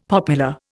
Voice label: "popular", Indian; Dictionary